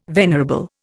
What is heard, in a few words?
"venerable", Indian